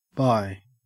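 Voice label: "bye", Australian English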